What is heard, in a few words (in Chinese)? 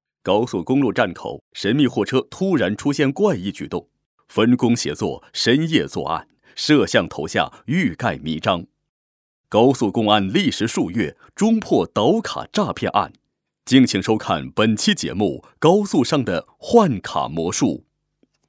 男 法制节目导视